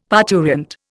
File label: "parturient", Indian; Dictionary